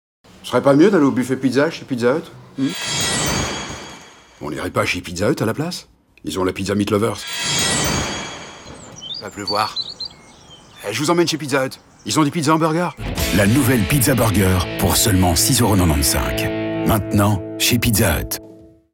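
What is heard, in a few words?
TV dubbing voice-over characters acting; pizzahut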